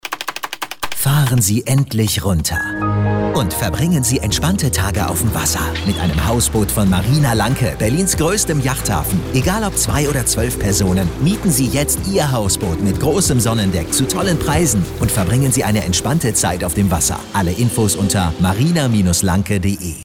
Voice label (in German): Hausboot mieten Radiospot; Hausboot mieten Marina Lanke Radiospot